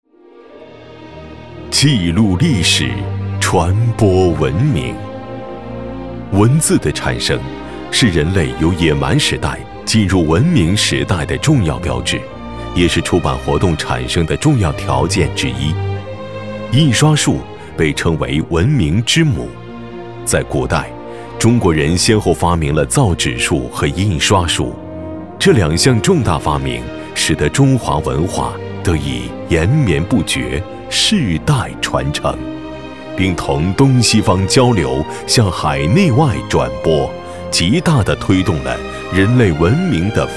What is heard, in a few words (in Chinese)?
男 纪录片 记录历史 历史感 厚重感